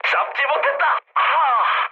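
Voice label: Korean bomb failure mood high, speech; War Thunder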